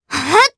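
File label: Vox Casting, Japanese; Viska; kingsraid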